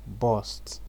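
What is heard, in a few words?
"burst", Nigerian Pidgin, male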